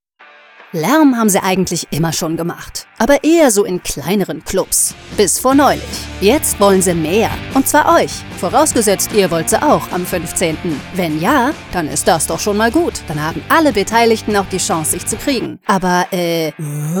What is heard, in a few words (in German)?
cool frech band konzert spot funk; Berlin; Muttersprache Deutsch